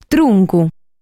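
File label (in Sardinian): "Truncu", Sardinian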